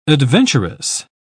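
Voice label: "adventuress", British English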